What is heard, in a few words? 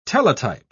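"teletype", British English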